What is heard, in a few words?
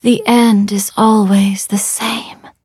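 level up, English; Cookie Run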